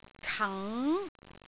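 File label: "kang2", Cantonese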